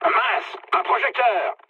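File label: searchlite, mood low, French; War Thunder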